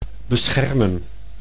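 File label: "beschermen", Dutch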